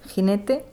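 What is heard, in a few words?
"jinete", Spanish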